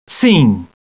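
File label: "yes", male; TALKNOW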